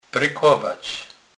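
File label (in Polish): "prykowac", Kurpie dialect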